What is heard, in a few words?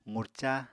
"qurca", Apatani